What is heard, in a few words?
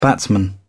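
"batsman", British English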